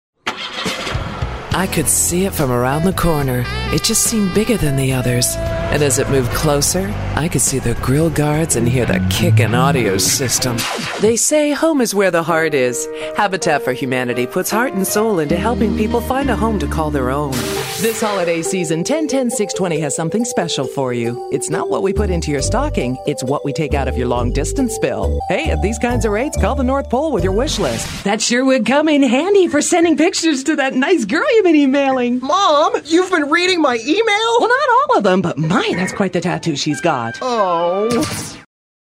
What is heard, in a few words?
Commercial